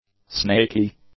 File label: "snaky", english; adjectives